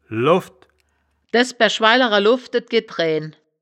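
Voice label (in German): "loffd", Wittlicher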